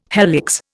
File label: "helix", Indian